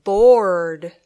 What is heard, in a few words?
"board", English